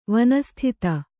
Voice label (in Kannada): "ವನಸ್ಥಿತ", Kannada